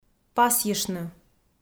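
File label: "пасйыштны", Komi, female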